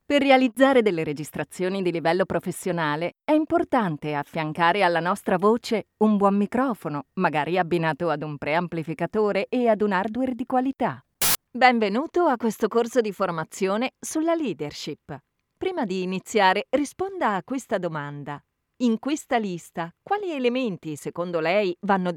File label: Dry